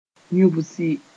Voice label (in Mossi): "yũbsi", moore